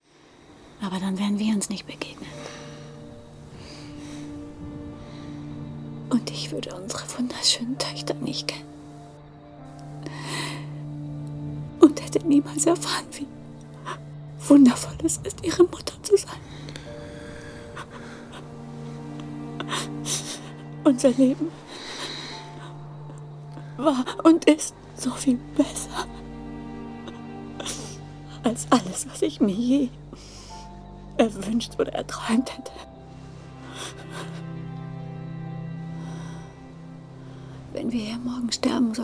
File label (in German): Synchron, Frauen; No Escape